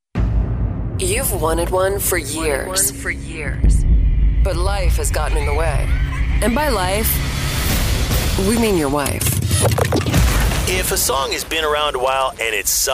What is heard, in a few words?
Classic Rock Imaging